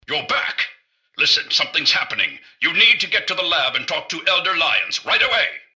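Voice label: greeting, robot; dialoguecitadel; mrgutsy; npc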